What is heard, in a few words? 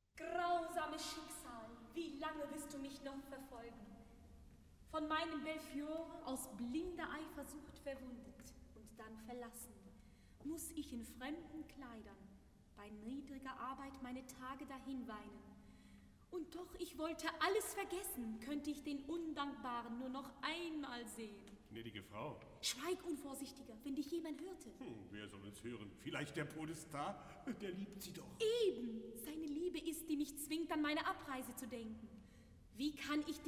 Dialog; Grausames Schicksal; Die Gärtnerin aus Liebe